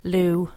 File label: "Loo", english, Ireland